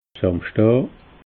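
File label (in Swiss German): "samschdaa", Alsatian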